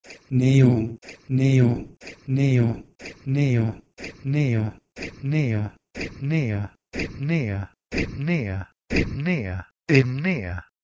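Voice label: Proto-Indo-European h1neun to Ancient Greek ennea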